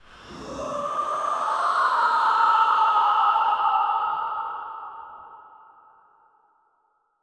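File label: yell shinzan in a dramatic, strained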